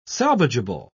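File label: "salvageable", British English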